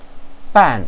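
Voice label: "ban", Mandarin Chinese, fourth tone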